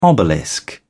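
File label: "obelisk", British English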